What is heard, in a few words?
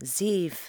"zeef" english